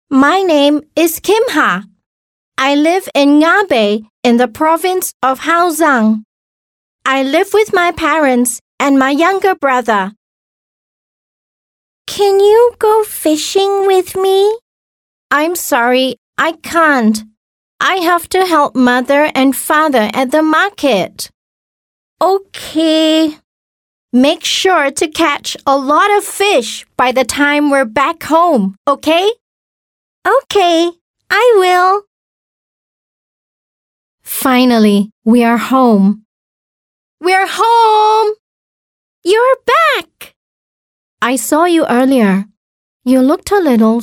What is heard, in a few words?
Asian English Educational Content as Child Characters; Mekong River